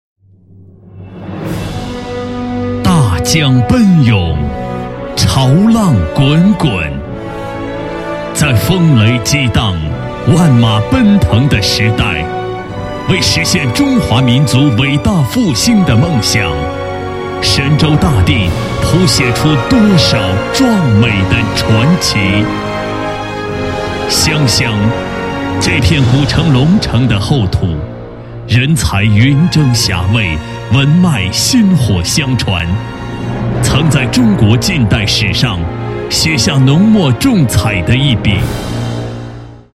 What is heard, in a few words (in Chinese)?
男40 专题 大气激昂, 国语男声配音; 湘乡